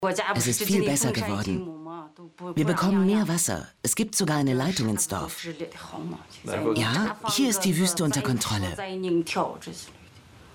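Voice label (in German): Sprachprobe Reportage, Sprecherin; HUNGER von Claus Kleber und Angela Andersen; ZDF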